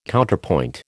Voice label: "Counterpoint", American